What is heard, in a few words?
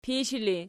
"pishi", Archi